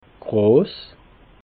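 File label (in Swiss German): "gross", Haut-Rhin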